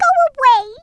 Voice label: "go away", Brooklyn; Worms Armageddon

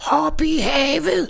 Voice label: "Go away", Danish; Worms Armageddon; Danish-Pyrus

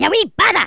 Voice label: "KAMIKAZE", Angry Scots; Worms Armageddon

